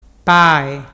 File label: "pae"